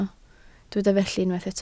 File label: "felly", Welsh